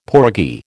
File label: "porgy", American